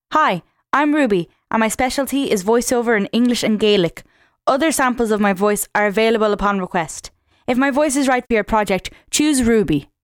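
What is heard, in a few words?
English Irish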